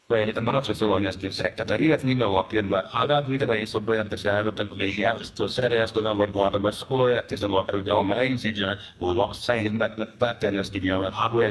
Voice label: a conversation between tw, academic

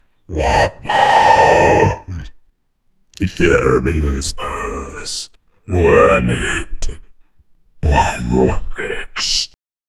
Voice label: evp record demon voice, paranormal

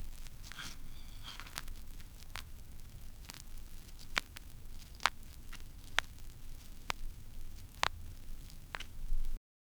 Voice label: voice whispers slow

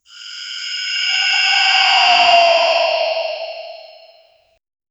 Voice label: a cute human sounding but, robots

